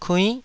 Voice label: "kuinn9", Taiwanese syllable